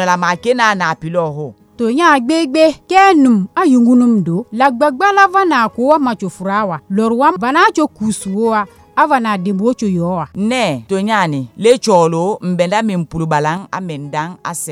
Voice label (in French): spot ebola pour femmes kissi